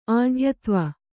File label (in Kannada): "ಆಢ್ಯತ್ವ", Kannada